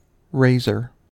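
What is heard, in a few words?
"razor", US English; pronunciations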